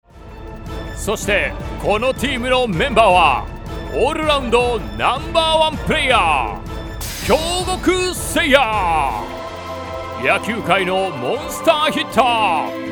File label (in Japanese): ボイスサンプル 選手紹介